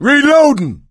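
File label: reloading, male; Left 4 Dead 2; coach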